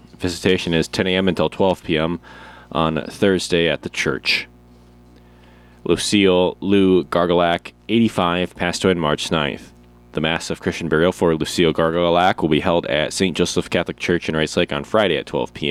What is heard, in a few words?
Funeral Announcements